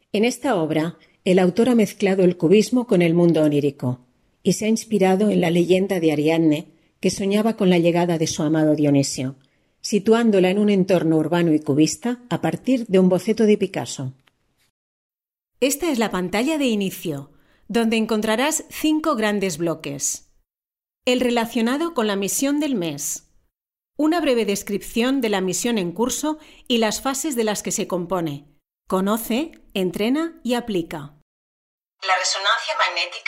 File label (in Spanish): tutorial castellano